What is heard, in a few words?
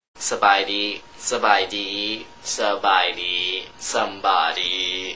Hello in Laos; Multiculturalism